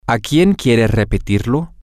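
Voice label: whom to do you want to repeat it, Spanish, male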